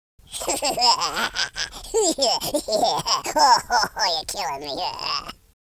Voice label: happy; Capone

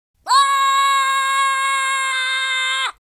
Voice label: scared, speech, US Sports

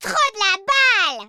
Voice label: brilliant, French

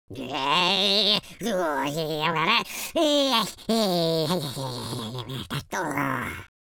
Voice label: angry, Welsh; speech

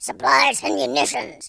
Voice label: collect, speech, War General

